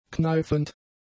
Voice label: "kneifend", German; adjectives

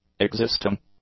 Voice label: "existing", english; adjectives

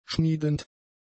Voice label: "schmiedend", German; adjectives

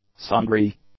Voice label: "sundry", English; adjectives

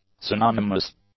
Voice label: "synonymous", English; adjectives

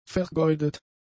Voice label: "vergeudet", German; adjectives

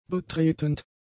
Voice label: "betretend", German; adjectives

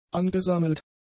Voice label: "angesammelt", German; adjectives

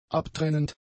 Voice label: "abtrennend", german; adjectives

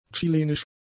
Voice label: "chilenisch", German; adjectives

